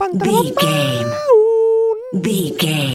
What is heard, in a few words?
voice fun character cute cartoon